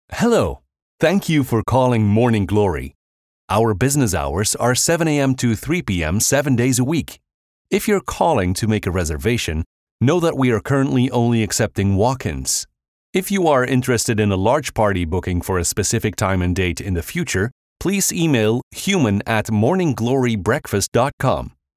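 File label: Flemish, male, English, voicemail IVR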